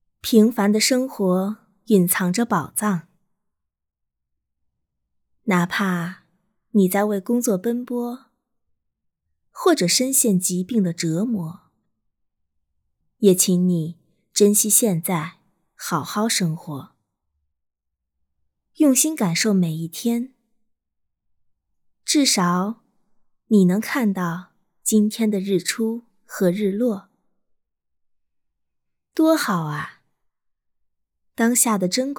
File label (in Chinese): 宣传广告